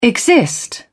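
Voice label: "exist", British English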